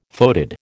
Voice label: "foetid", American

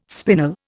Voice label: "spinel", Indian

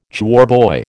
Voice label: "choirboy", American

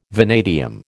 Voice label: "vanadium", American

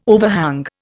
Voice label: "overhang", Indian

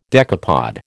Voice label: "decapod", American

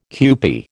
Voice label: "kewpie", American

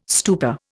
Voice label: "stupa", Indian

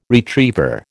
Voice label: "retriever", American

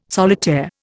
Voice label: "solitaire", Indian

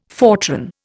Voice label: "Fortran", Indian

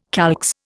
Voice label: "calx", Indian

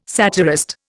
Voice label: "satirist", Indian

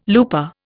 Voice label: "looper", Indian

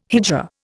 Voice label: "hijra", Indian

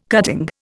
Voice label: "cutting", Indian

